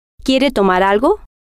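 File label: quiere Tomar Algo, Spanish, female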